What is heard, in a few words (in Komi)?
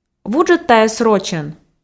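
вуджӧд тайӧс рочӧн, Komi, female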